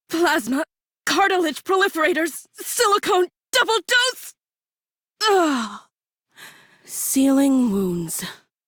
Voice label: Crew Heal Self Female en; Railjack Crew; Cephalon Suda